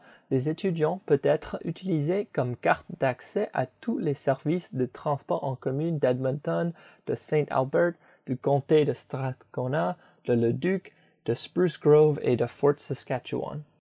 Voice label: Bus Loop LRT Station - French